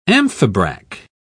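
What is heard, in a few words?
"amphibrach", British English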